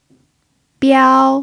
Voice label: "biao1", pinyin